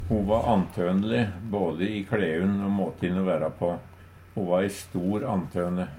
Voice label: "antone", Numedalsmål

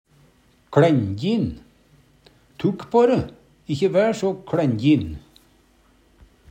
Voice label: kLenjin, Numedalsmål; Tukk på re, ikkje vær so kLænjin; Adjektiv

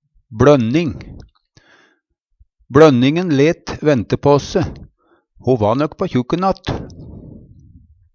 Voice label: "bLonning", Numedalsmål

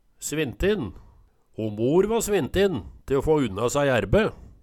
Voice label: svintin, Numedalsmål

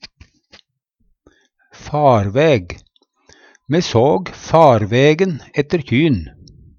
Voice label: farvæg, Numedalsmål; Me såg farvegen ette bjønn.; Substantiv hankjønn

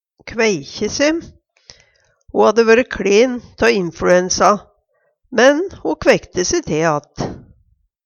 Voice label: "kveikje se", Numedalsmål

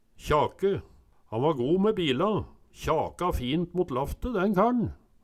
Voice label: kjåke, Numedalsmål

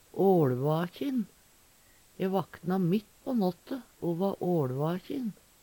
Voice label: "åLvakjin", Numedalsmål; E vakna mitt på nåtte o va åLvakjin.; Adverb

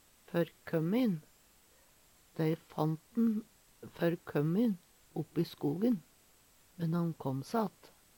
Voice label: "førkømmin", Numedalsmål; Dei fant`n førkømmin, oppi skogen, men han kåm se att.; Adjektiv

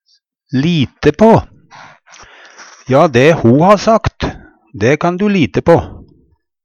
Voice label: lite på, Numedalsmål; lite på, stole på; Uttrykk

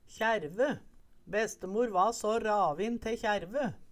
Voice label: kjaerve, Numedalsmål

